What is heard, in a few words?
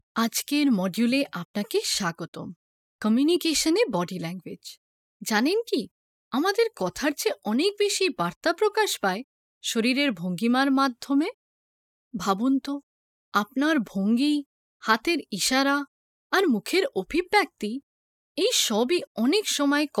female bengali voice over narrative; bangla